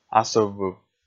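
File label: "асыввыв", Komi, male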